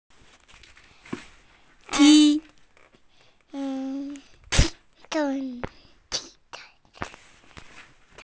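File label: "ti1", Mandarin Chinese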